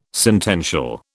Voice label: "sentential", British

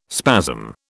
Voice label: "spasm", British

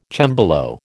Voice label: "cembalo", American

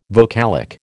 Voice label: "vocalic", American

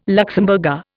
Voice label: "Luxemburger", Indian

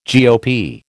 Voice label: "GOP", American

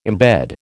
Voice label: "embed", American

